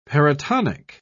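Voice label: "paratonic", British English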